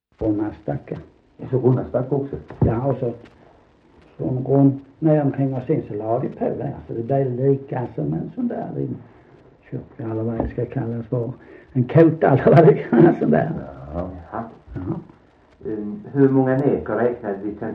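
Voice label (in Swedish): Sankt Olof Skåne, Svenska sagor, dialekter; Folkminnen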